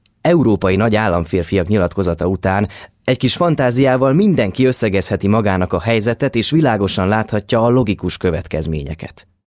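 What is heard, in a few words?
Hungarian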